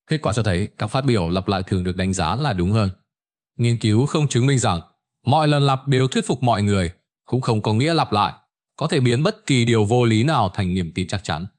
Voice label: narration; Vi Sao Ban De Tin Mot Dieu Khi Nghe No Lap Lai Nhieu Lan; StickerMan